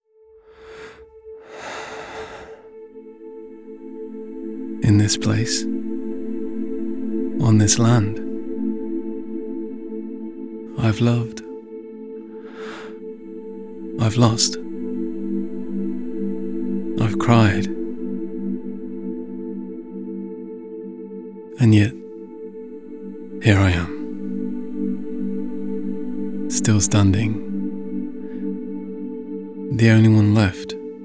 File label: Emotional